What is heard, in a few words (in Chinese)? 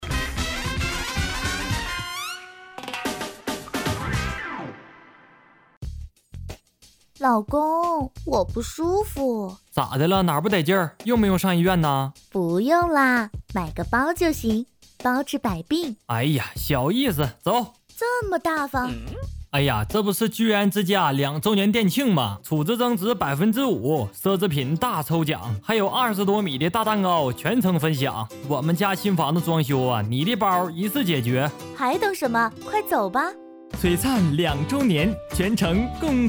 东北方言对话广告